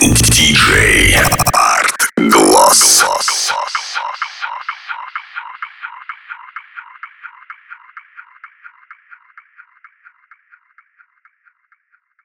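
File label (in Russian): DJ ЛАЙНЕР, Диктор; Арт Глосс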